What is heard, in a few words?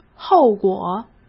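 "后果", Mandarin Chinese; chinese-flashcards; hsk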